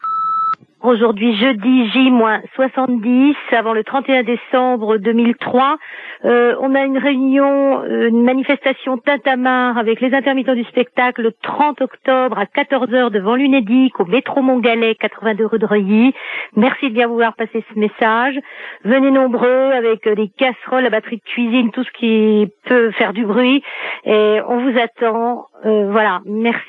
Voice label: Manif intermittents répondeur

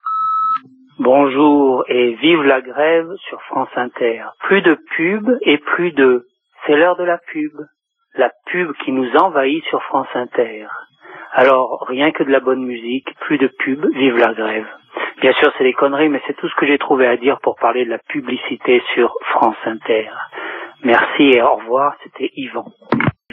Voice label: Pub répondeur; France Inter

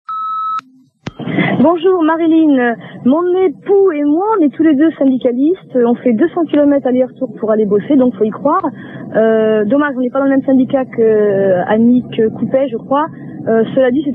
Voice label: Raisons d agir repondeur

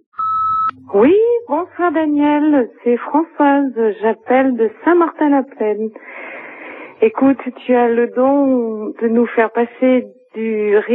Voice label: Lettres fusilles repondeur

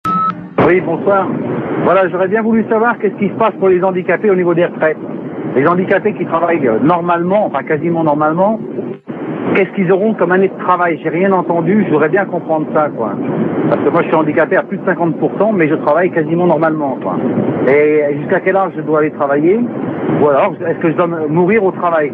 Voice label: Manif retraites handicape repondeur

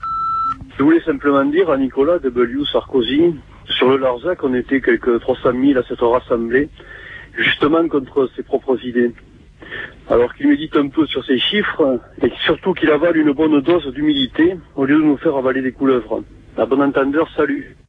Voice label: répondeur; Nicolas Sarkosy

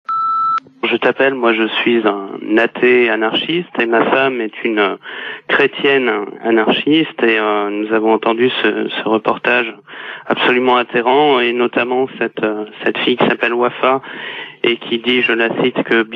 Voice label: Holy Land repondeur

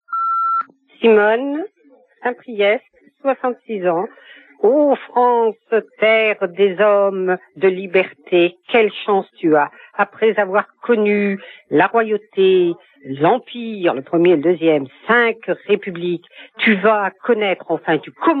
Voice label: Chiracocratie repondeur

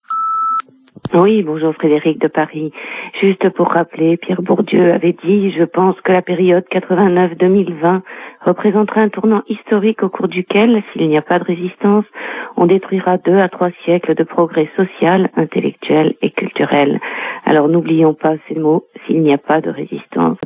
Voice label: Manif retraites repondeur; Bourdieu

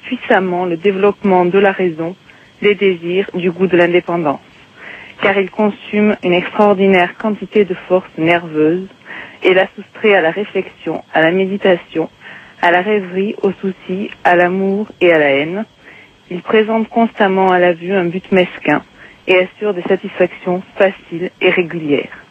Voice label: Danger travail repondeur

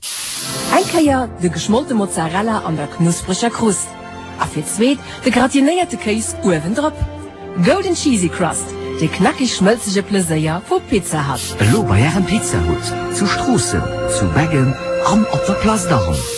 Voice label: pub allemande; Pizza Hut

